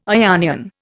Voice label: "Ionian", Indian

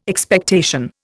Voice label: "Expectation", Indian

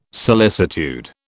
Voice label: "solicitude", British

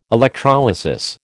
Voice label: "electrolysis", American

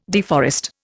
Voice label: "deforest", Indian

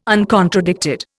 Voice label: "uncontradicted", Indian

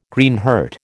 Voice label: "Greenheart", American

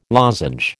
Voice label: "lozenge", American

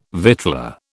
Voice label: "victualler", British

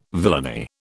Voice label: "villainy", British

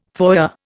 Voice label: "foyer", Indian

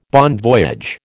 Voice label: "bon voyage", American

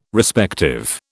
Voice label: "Respective", British

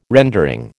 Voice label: "rendering", American

